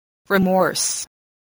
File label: "remorse", spelling voice